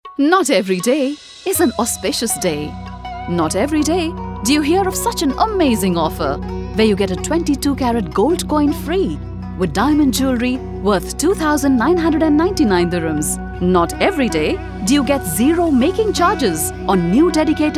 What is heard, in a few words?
promo English